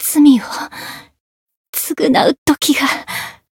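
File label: Voice Japanese; niike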